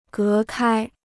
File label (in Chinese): "隔开", Chinese